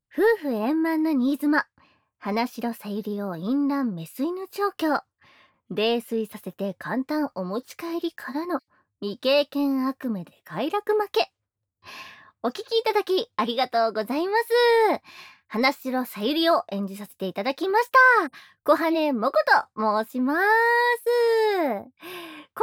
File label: FreeTalk, ASMR